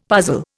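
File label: "puzzle", Indian English; Dictionary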